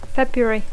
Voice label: "February", English